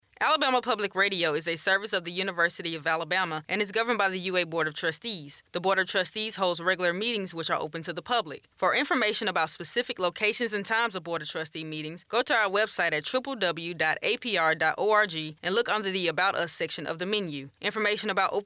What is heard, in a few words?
open meetings notification promo; radio news